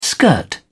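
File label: "skirt", British English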